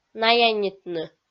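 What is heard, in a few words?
"наянитны", Komi, female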